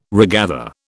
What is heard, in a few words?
"regather", British